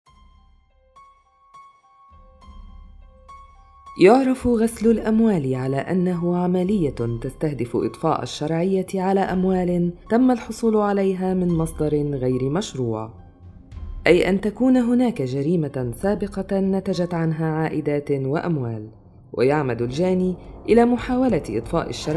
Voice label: arabic documentaries